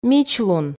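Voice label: "мичлун", Komi, female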